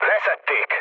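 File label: Hungarian aircraft destroyed mood low, speech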